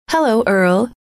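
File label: hello, female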